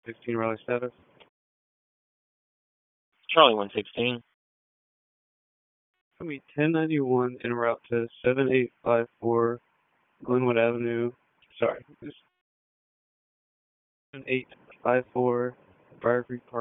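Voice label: Police Southwest District Dispatch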